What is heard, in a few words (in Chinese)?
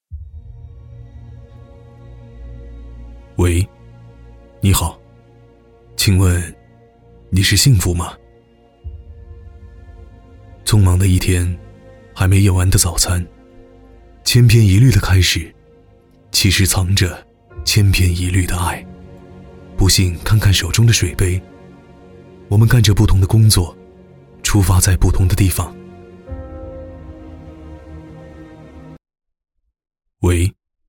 走心; 幸福